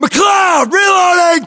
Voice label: inform reloading, battlechatter us; localized english

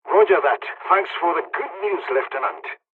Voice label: dialog, dialogs serbian; War Thunder

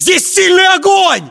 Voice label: inform suppressed generic, battlechatter ru

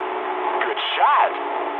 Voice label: eng player killed enemy mood med, speech eng

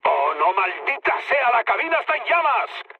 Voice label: on fire mood low; War Thunder

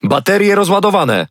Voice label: Speech Alarms Sub Battery End, PL; World Of Warships

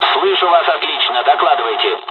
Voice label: dialogs russian; War Thunder

